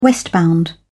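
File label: "westbound", British English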